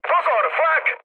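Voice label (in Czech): Czech damaged anti-aircraft, mood medium; War Thunder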